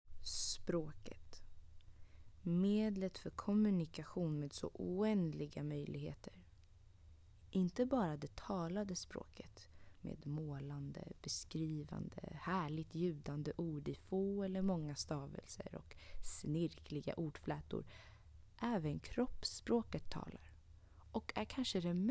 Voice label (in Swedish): informativ